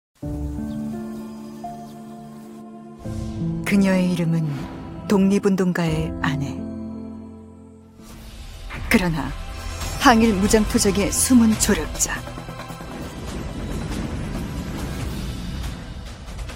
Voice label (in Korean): 다큐 예고 냉철하며 지적인 느낌; 아내의 이름